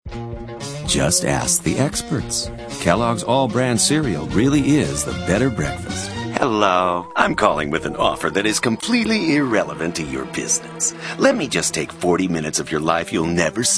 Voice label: male, mid30s mid40s warm

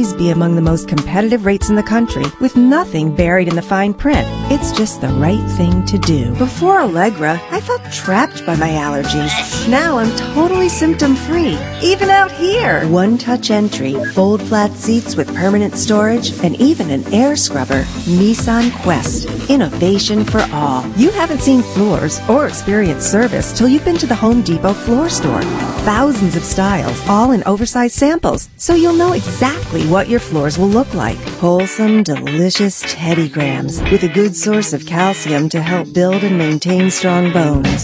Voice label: female, late30s early40s clean

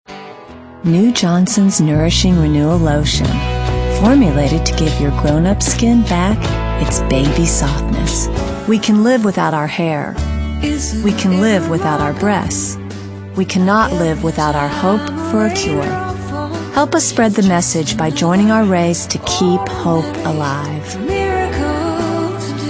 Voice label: female, late 30s early 40s, clean

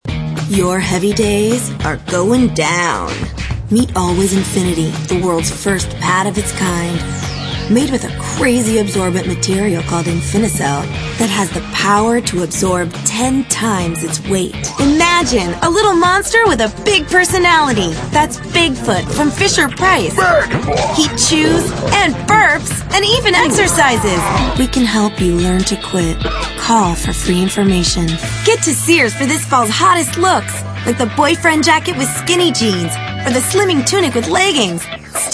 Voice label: female, late 20s mid 30s contemp

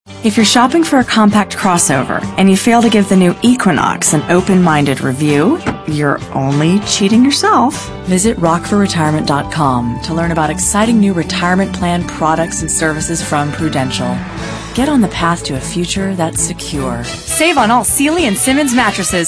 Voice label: female late 20s mid 30s contemp

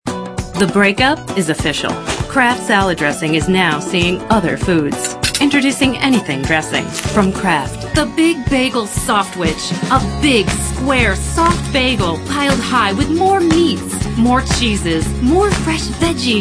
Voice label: female, late30s early40s clean

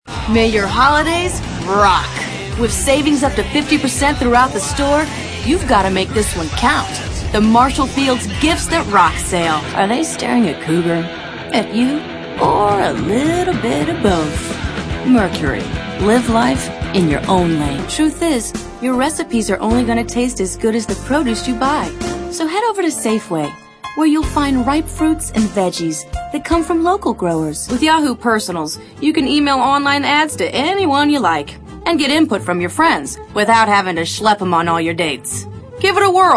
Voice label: female, late 20s mid 30s contemp

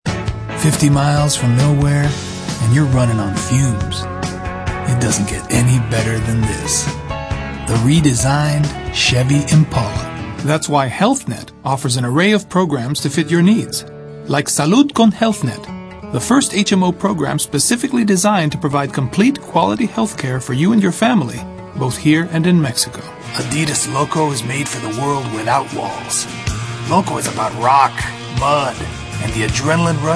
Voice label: male, mid 30s mid 40s, cool

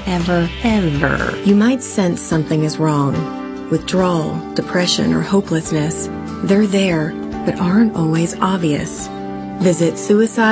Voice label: female, late20s mid30s contemp

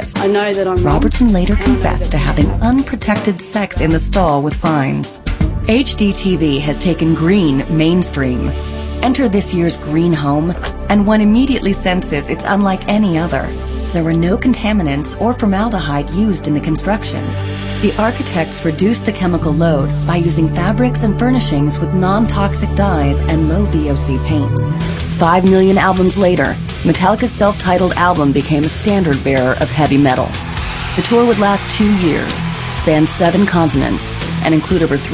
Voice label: female narration

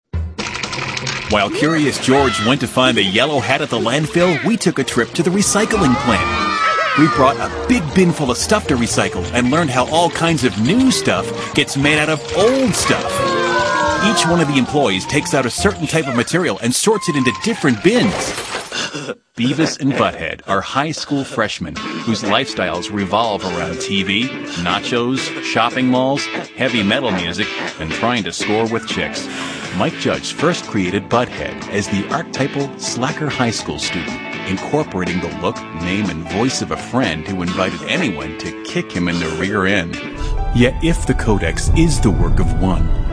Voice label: male narration

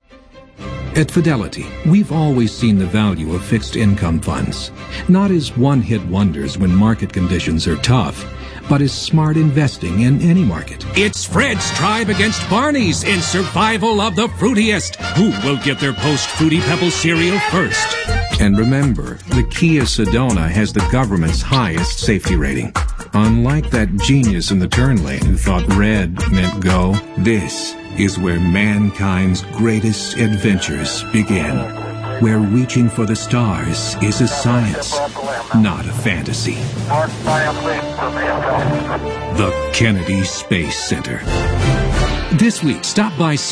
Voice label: male mid30s mid40s solid

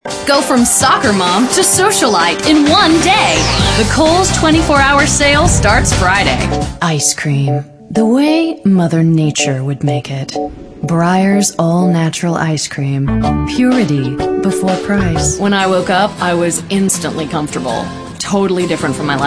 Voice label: female, late 30s early 40s, clean

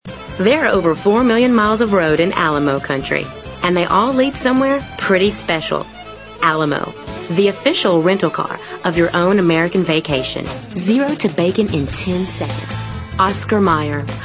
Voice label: natural character, female

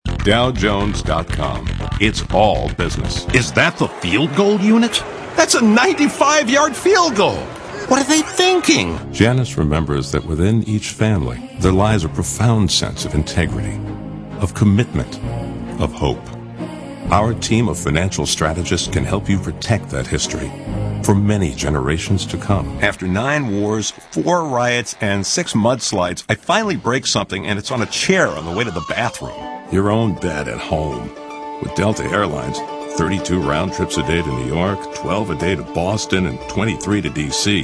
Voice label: male, mid30s mid40s solid

